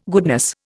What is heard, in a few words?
"goodness", Indian